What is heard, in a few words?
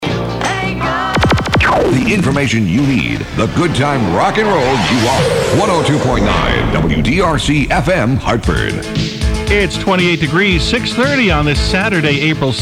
news